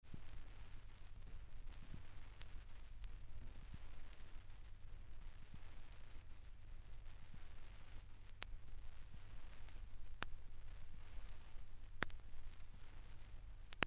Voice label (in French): ambiance basilique de clemery voix; secte catho